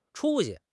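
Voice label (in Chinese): "出息", Chinese; HSK6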